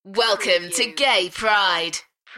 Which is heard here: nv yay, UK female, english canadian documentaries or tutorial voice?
UK female